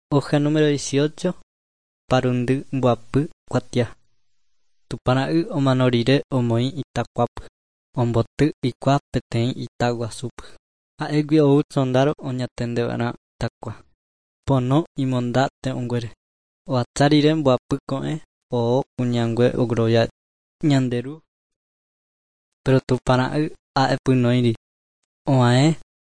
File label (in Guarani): guarani mbya; good news and words of life; bible